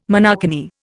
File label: "monokini", Indian